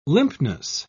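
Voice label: "limpness", British English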